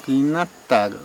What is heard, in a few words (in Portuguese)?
"nariz", kalapalo